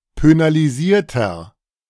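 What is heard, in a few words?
"pönalisierter", German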